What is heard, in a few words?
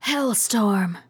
hellstorm, RPG Voice; RPG Voice Starter Pack